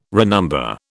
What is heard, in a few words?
"renumber", British